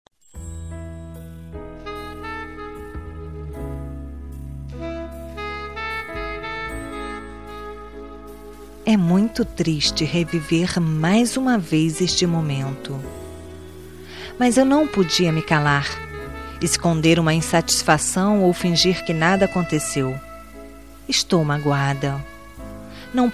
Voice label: Amizade Você Me Magoou, F

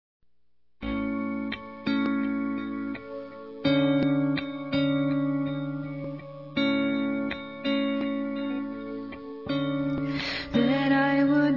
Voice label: Romântica Com Declaração

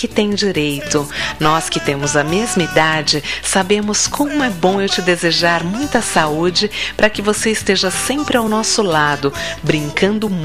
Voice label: Aniv. de Criança F

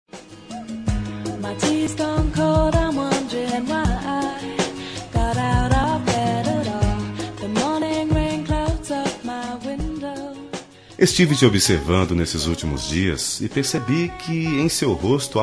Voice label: Romântica pra Gestante

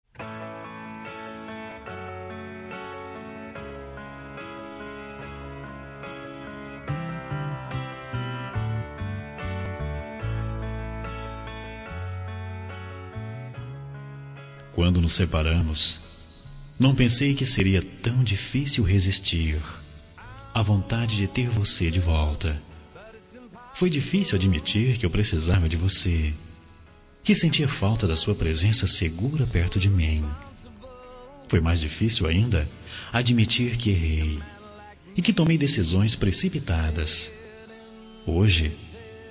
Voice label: Romântica Com Reconciliação

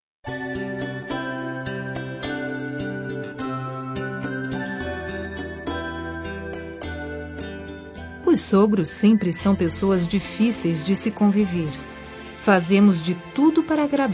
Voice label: Aniv. de Nora, F

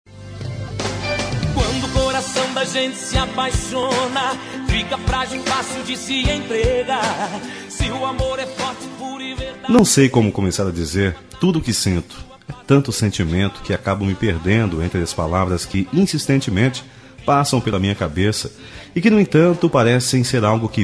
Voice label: Romântica, M; Deixa Ele e Fica Comigo

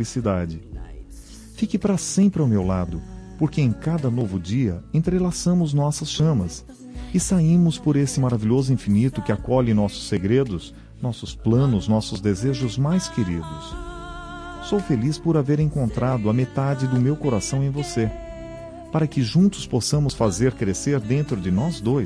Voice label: Romântica Alma Gêmea

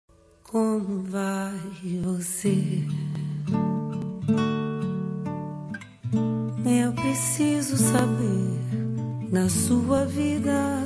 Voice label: Romântica pra Amante

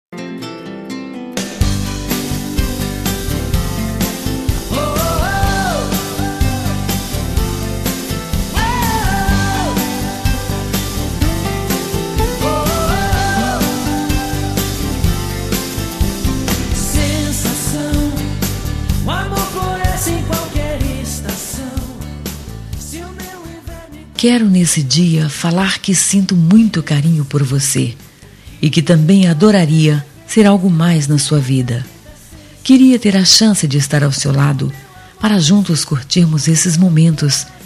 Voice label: Namorados Com Cantada F

